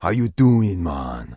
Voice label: Troll Male Shaman NPC Greeting

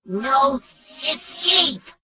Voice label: Gnome Female Pissed; Death Knight

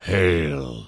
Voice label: Tauren Male Elder NPC Greeting

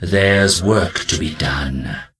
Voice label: Death Knight Male Low Farewell

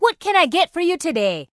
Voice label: Dwarf Female Guard NPC Vendor

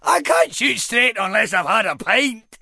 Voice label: Rifleman Pissed

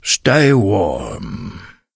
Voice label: Tuskarr Male Farewell; Warrior

